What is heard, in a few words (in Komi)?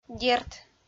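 "дерт", Komi, female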